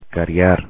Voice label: "cariar", Spanish; infinitive